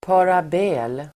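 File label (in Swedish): "parabel", Swedish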